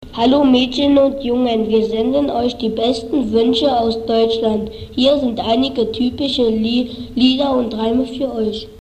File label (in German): Ansage, Christmas; Haldensleben; Comenius